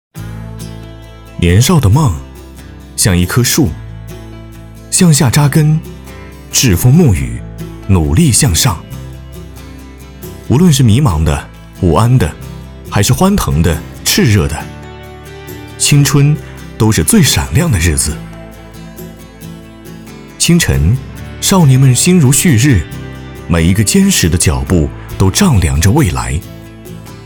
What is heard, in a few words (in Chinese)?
男 广告 高端年轻自然